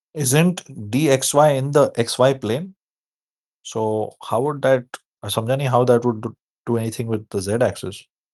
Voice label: voice message